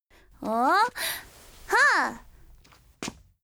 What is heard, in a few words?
FEMALE Emotion